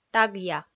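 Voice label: "тагъя", Komi, female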